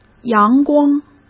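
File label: "阳光", Mandarin Chinese; hsk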